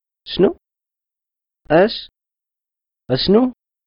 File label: chno, darija